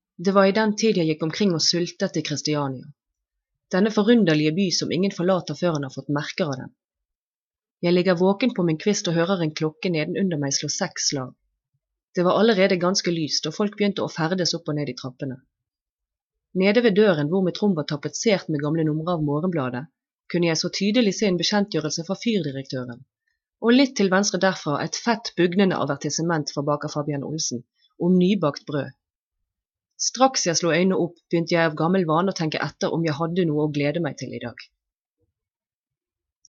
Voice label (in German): norwegisch